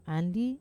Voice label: "andi", Wamesa